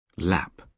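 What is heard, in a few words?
"lap", British English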